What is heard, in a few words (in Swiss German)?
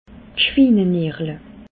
"schwinenierle", alsace